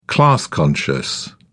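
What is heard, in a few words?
"class-conscious", British English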